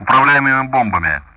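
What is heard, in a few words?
Speech Sound Russian; DCS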